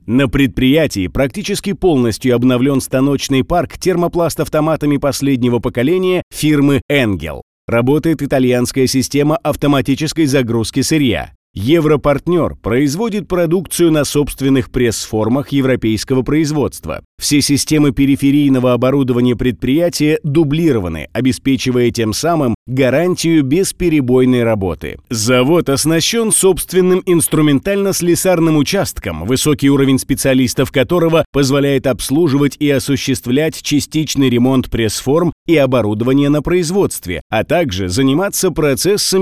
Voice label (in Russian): delovoy; профессиональных дикторов для озвучивания видео